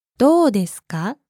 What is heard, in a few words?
japanese